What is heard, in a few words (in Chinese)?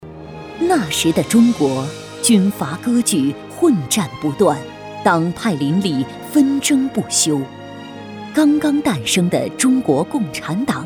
专题红色革命浑厚大气